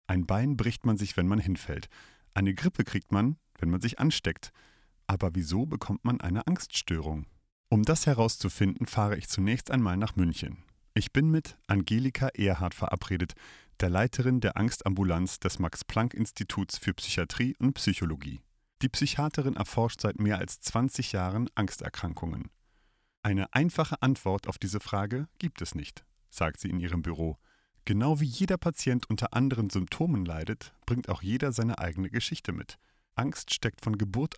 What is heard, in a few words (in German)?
Sprachprobe Doku; Hallo Angst